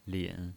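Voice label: "lin", pinyin, Mandarin Chinese, third tone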